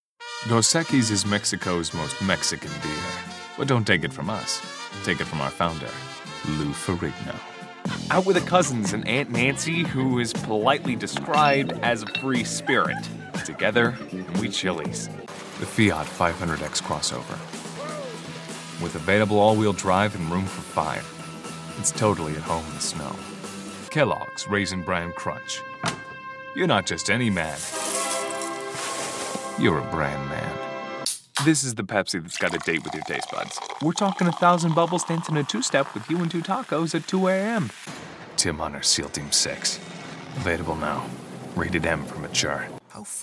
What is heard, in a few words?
commercial